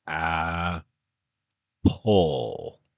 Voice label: Apples English card